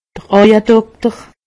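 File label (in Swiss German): "aujedokter", Haut Rhin; medecine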